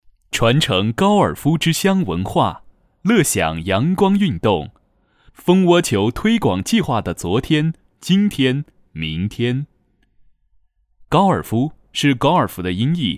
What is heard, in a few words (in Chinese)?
男, 宣传片; 高尔夫; 蜂窝球推广计划